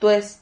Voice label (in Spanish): "tues", Silabas